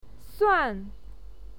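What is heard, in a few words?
"suan4", Mandarin Chinese pinyin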